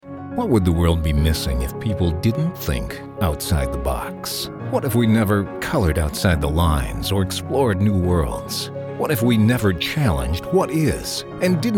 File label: Narration Corporate